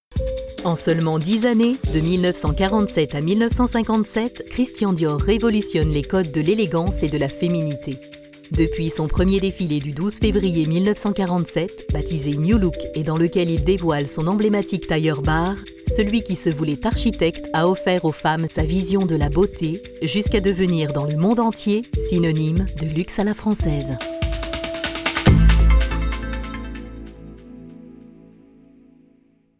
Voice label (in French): Documentaire; Createurs de mode; Christian Dior